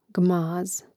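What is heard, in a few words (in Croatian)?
"gmaz", hrvatski; mreznik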